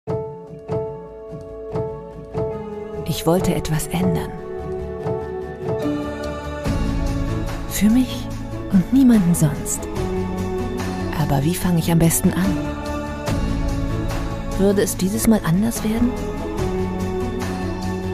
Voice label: Voiceover; Runtastic

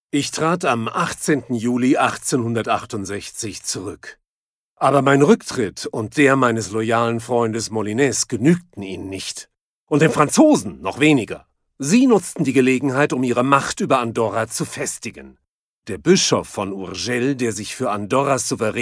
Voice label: HISTORY BIOGRAPHY DOCU GERMAN VOICE OVER; Guillem d’Areny - Plandolit

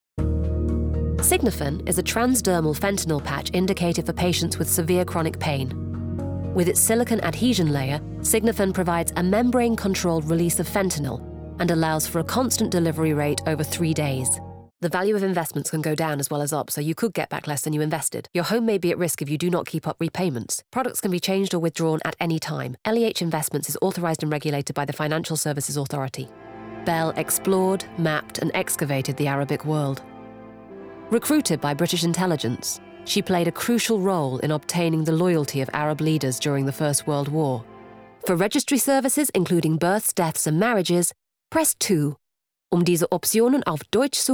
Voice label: vo showreel narrative long

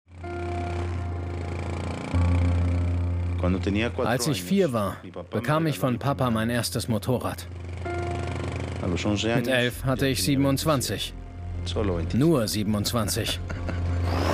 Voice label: Documentary VO; escobar mein vater der drogenbaron; LOFT VOICES

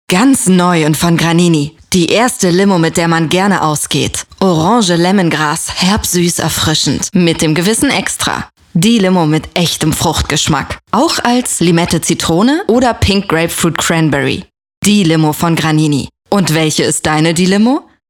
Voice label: Radiospot Voice; Granini

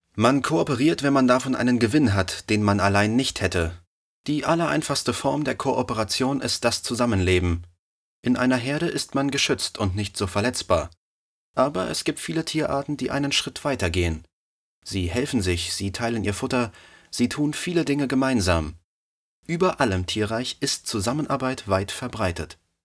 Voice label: VoiceOver

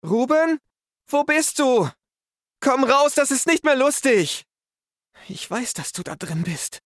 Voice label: young scared; LOFT VOICES

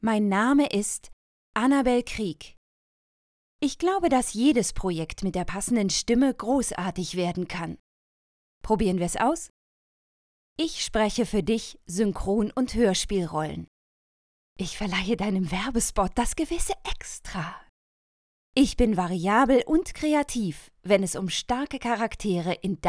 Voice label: Presentation

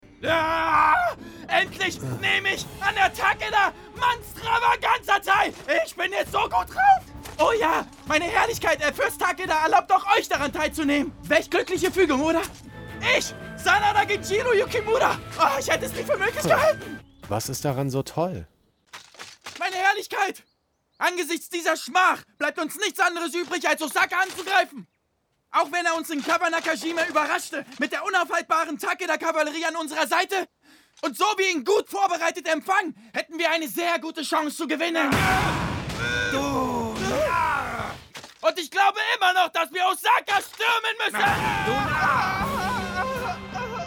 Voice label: happy; samurai kings

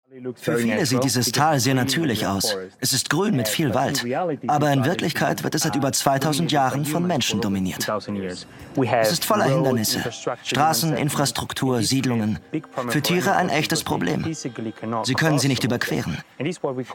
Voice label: VOICE OVER Natur; ORF

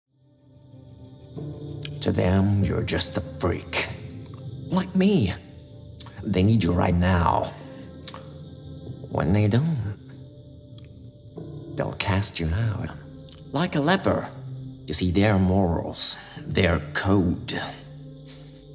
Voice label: Imitation, Lip-Sync (Synchron), English US; Batman's "The Joker"

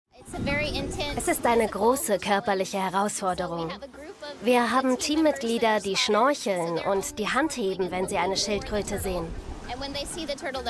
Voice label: Voiceover; arte

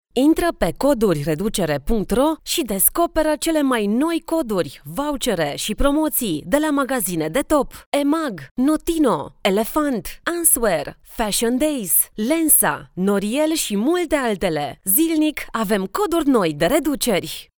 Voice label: Promo

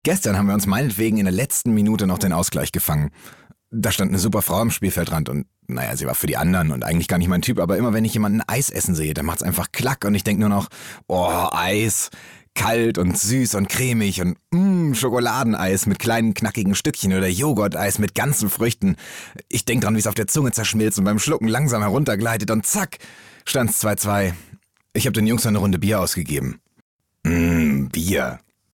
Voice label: Scene, German; Germany

